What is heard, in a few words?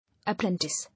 "Apprentice", English